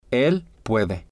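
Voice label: "el puede", Spanish, male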